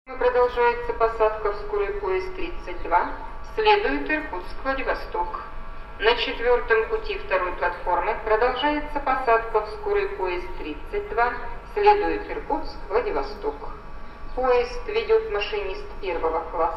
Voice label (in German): Bahn Ansage Vladivostok